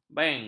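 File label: "bank", Malay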